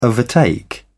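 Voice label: "overtake", English, British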